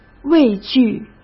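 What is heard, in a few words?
"畏惧", Mandarin Chinese; hsk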